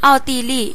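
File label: austria, Swedish; gcompris-data; geography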